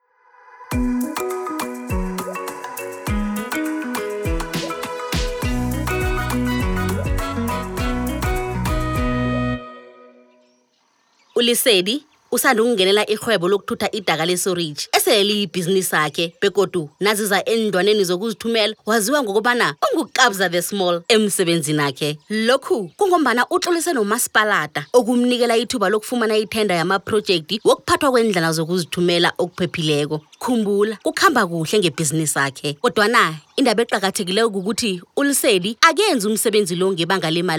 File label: Service Providers Containment Ndebele; Sanitation; Ndebele Soundbites; DWS